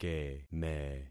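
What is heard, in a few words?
"keme", concatenated syllables; Affixing experiment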